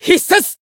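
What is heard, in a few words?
Voice limitbreak Japanese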